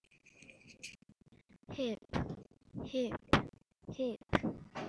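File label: "hip", spoken word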